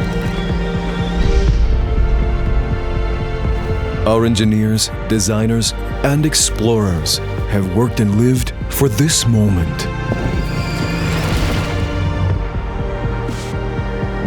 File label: EN Road to Dakar; Audi